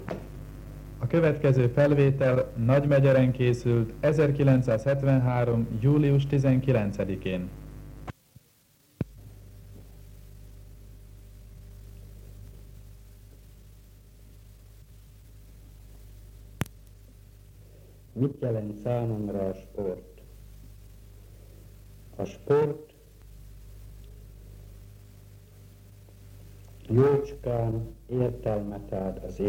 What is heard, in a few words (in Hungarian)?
nyelvjaras gyujtesek